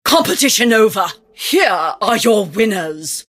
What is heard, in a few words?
admin summary cheer; compmode